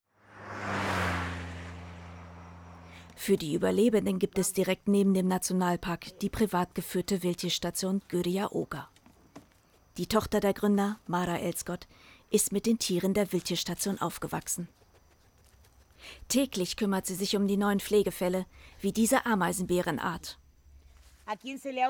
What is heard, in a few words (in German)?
Doku Off